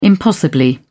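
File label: "impossibly", British English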